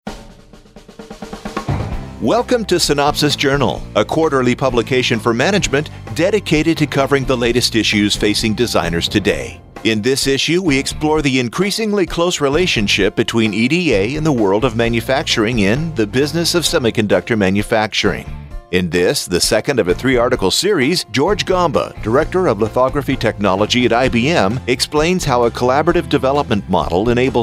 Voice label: Podcast

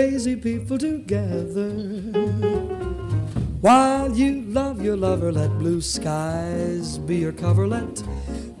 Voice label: Radio

